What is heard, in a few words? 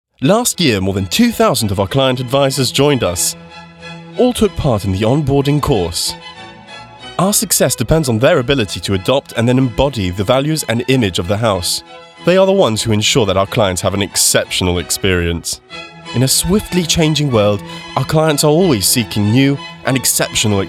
english british training e-learning